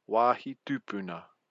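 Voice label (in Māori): "wahi tupuna", Māori word; looking after heritage sites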